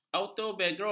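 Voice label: "autobiografi", Malay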